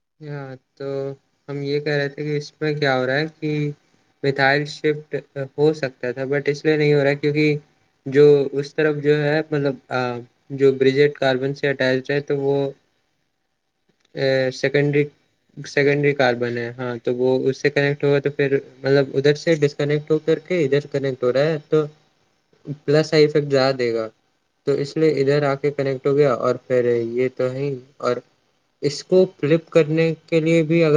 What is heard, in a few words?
voice message